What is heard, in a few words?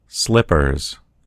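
"slippers", American English; gcompris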